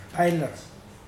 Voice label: "small striped lizard", Mokilese

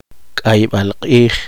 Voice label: poqomchi

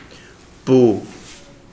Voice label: seashell, Kapingamarangi

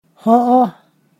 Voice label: "yes", Ponosakan

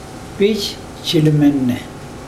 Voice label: these three pigs, mokilese

